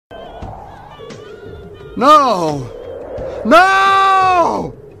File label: yelling NO; Moses